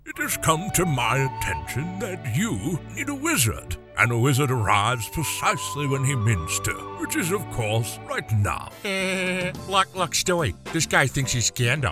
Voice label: Character And Celebrity; philadelphia

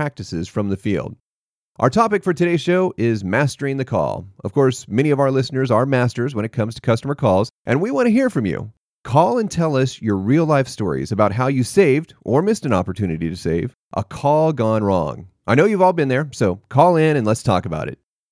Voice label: Radio

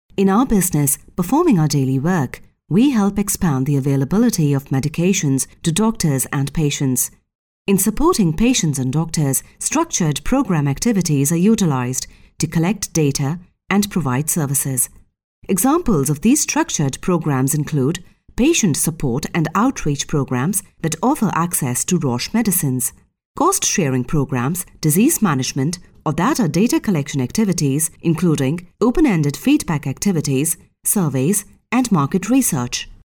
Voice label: Elearning Neutral British

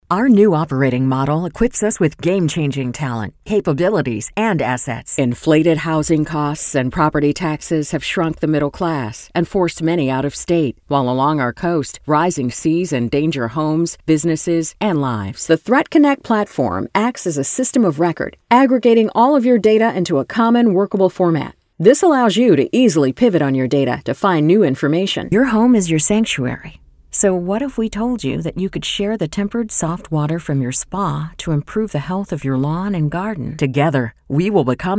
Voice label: Narration Dry Voice

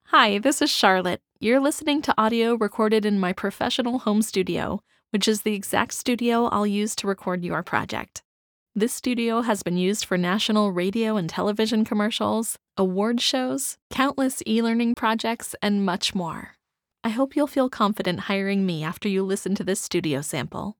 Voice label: studio

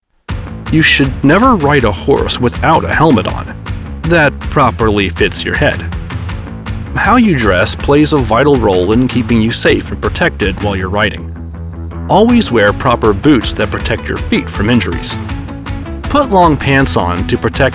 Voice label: NARRATION

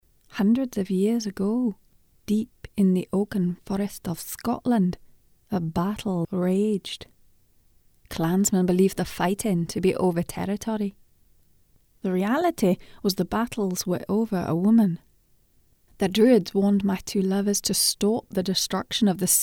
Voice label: scottish; soulmates

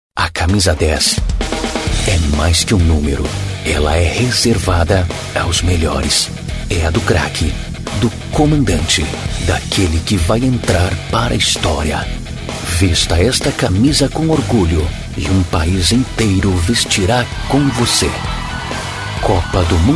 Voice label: Default style impactful

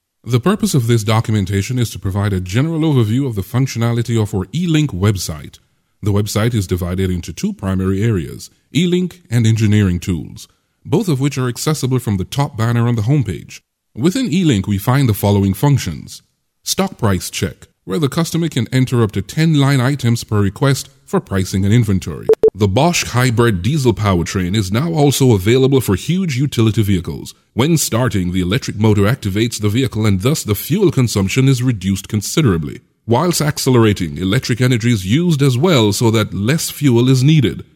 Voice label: Web Tutorials dry

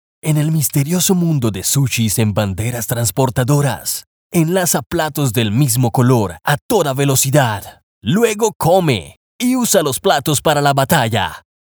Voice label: GAME TEASER VIDEO

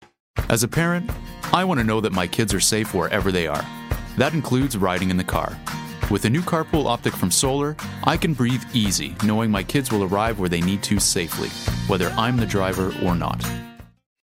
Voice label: Radio

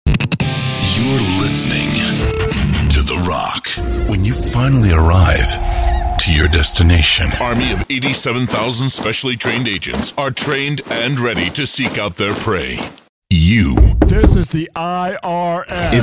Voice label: radio spots, Deep Male Voice, Radio Commercials

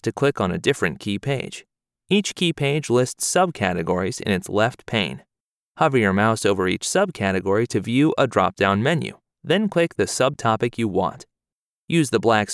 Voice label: tour guide

